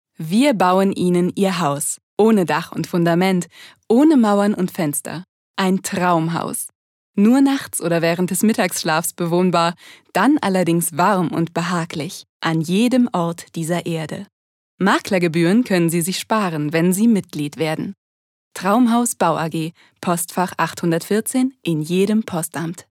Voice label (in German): Werbung, Freundlich